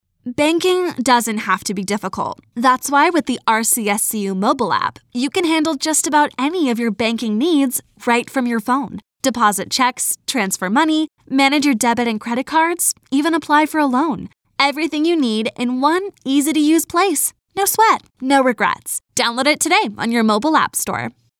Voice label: Sincere